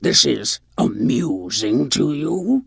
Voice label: Tuskarr Female Pissed; Magic User